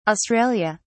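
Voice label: "Australia", British English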